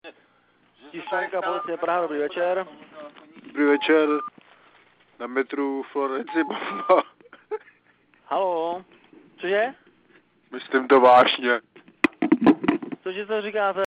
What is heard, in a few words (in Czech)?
výhrůžný telefonát